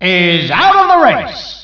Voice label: SPEECH ENGLISH